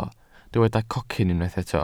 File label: "cocyn", welsh